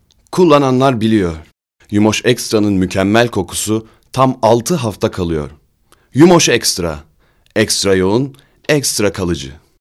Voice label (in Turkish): REKLAM